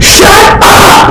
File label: shut up earrape